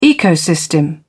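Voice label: "ecosystem", British English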